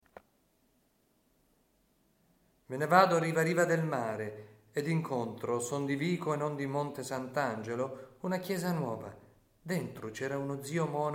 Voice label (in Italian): recitato Mi ni veghi ripa ripa di lu mér Mattinata